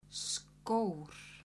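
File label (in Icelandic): "skór", Icelandic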